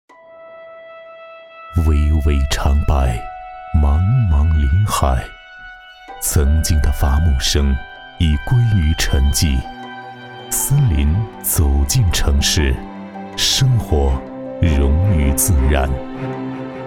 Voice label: 男 纪录片 宣传片 韵味 沧桑 高端; 长白山

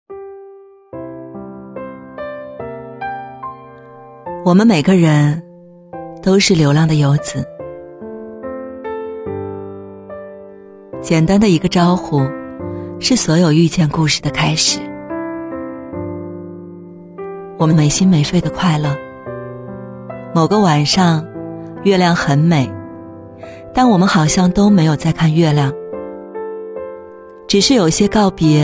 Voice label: 女 旁白 元宵节

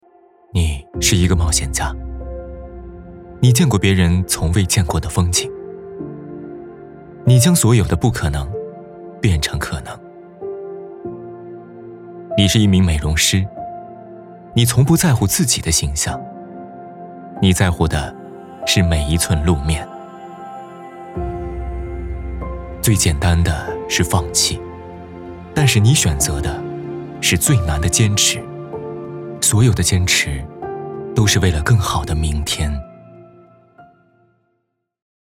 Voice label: 男, 宣传片, 年轻高级感; 介绍你是一个冒险家

